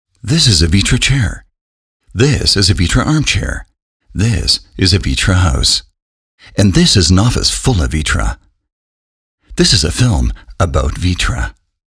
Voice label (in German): imagefilm; vitra